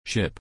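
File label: "ship", English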